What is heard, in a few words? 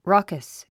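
"raucous", English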